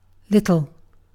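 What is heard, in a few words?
"little", British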